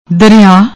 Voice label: "darea", Punjabi